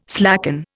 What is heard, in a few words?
"slacken", Indian